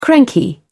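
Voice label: "cranky", English, British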